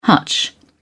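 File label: "hutch", British English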